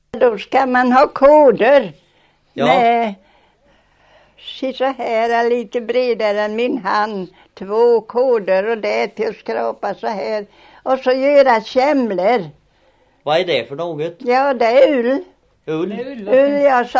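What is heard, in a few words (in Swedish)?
Västra Ryd Östergötland, Svenska sagor, dialekter; Folkminnen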